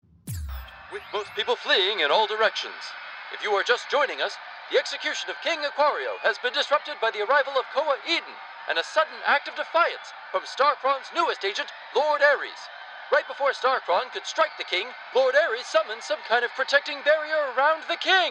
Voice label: Newscaster

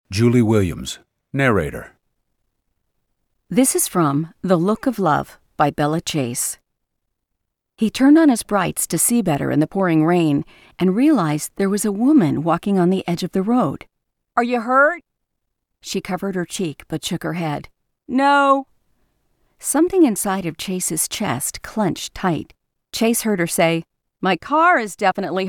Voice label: Audiobooks excerpts

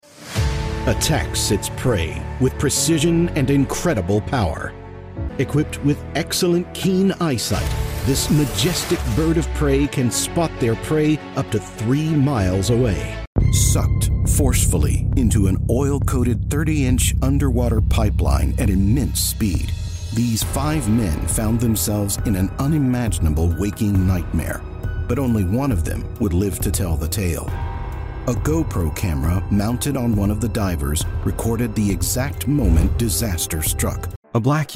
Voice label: Video Narration

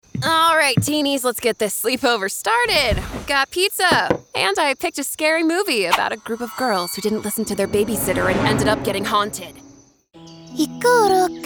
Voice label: Animation

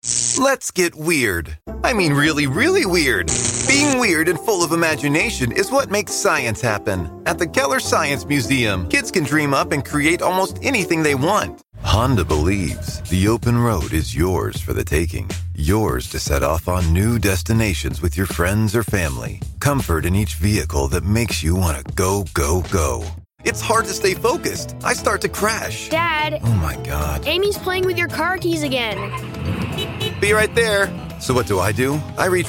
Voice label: Commercial

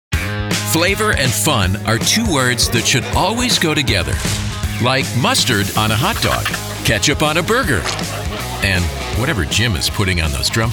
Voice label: COMMERCIAL

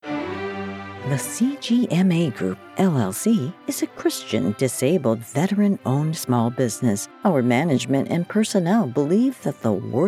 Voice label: with Music

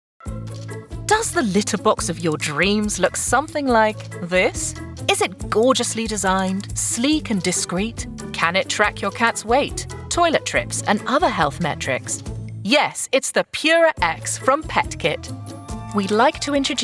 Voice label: Corporate

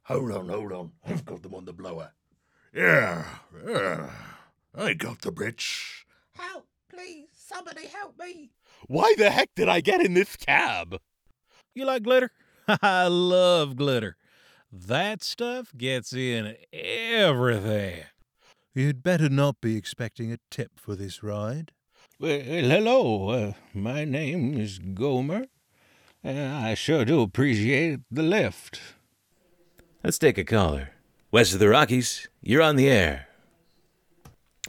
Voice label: VO Reel

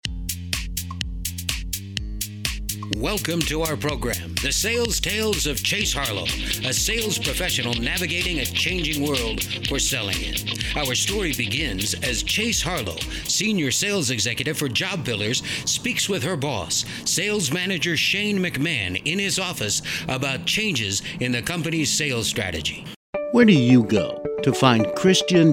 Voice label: Announcer

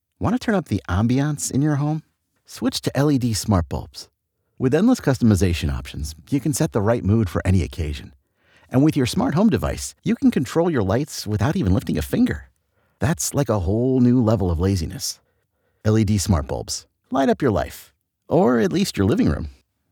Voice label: Warm Friendly

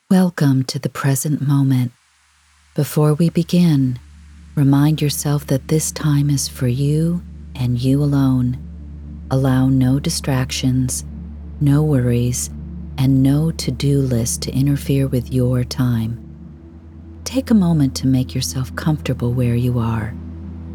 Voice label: Guided Meditation Floating w Music

